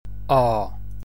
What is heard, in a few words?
б (Connemara and Munster), gaelic